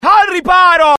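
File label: fear grenade, IT; dialogue; mohaa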